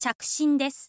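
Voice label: info lady "chakushin desu"; voice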